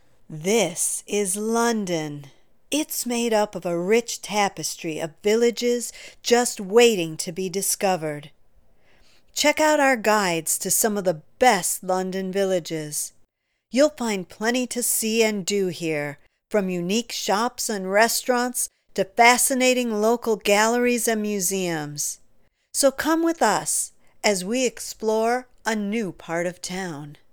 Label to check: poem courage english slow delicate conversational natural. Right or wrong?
Wrong